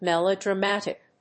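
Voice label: "melodramatic", English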